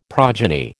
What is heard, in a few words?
"progeny", American